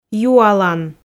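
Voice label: "юалан", Komi, female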